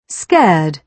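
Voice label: "scared", British English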